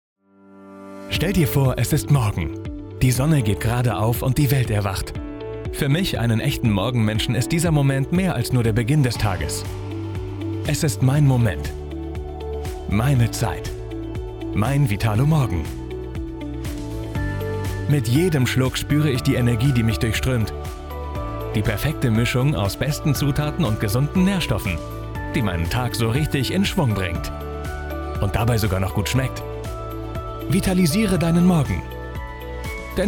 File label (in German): Werbe; Vitalo Morgen